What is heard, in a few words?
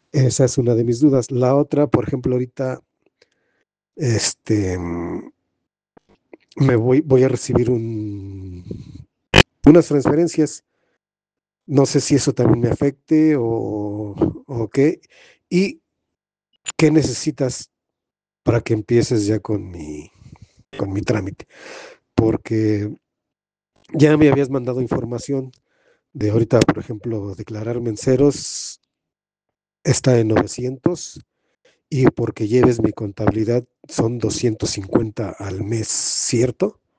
whatsapp ptt